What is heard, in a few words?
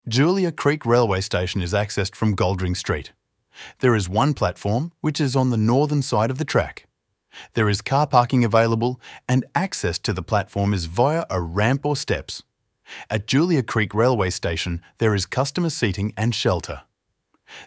Julia Creek Railway Station, Station map audio description; TRAX; Overview Address Gold Ring Street